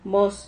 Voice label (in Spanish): "mos", Silabas